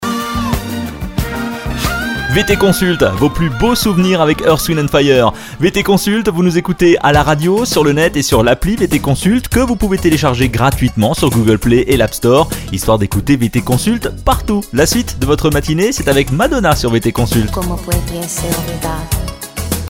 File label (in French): adulte